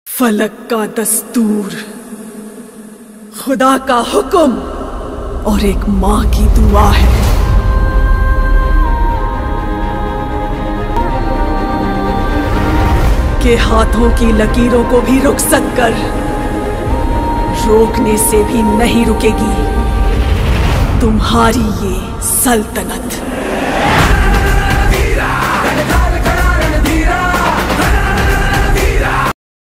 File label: dialogue ringtone; KGF